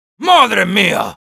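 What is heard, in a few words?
positive vocalization, Spanish; Sniper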